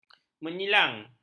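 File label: "menyilang", Malay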